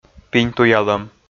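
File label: "пиньтуялӧм", Komi, male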